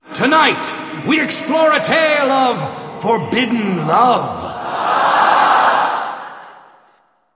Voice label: Announce; Barnes The Stage Manager

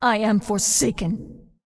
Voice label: Undead Female Warrior Greeting; NPC

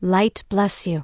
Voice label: Human Female Official NPC Farewell

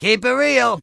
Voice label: Goblin Male Gruff NPC Farewell

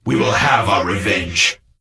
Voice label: Death Knight Male Low Farewell

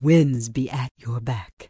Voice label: Tauren Female Official NPC Farewell

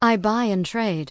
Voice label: Human Female Official NPC Vendor

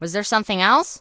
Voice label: Gnome Male Young NPC Pissed

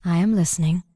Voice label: Night Elf Female Standard NPC Greeting

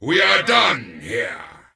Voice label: Death Knight Male Med Farewell

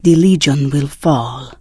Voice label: Draenei Female Military Greeting

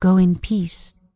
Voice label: Night Elf Female Priestess NPC Farewell

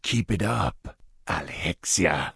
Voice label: Troll Male Standard NPC Pissed

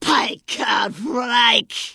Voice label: Vrykul Female Warrior Vendor

